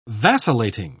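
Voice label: "vacillating", British English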